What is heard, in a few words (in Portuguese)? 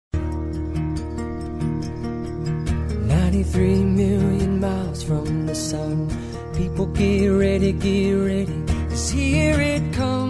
MÃE ROMÂNTICO MASC; D. MÃE ROMÂNTICO MASC.; Dia das mães